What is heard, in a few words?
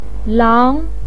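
"lang" second tone, chinese, voice pinyin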